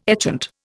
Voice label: "etchant", Indian